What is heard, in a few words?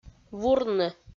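"вурны", Komi, female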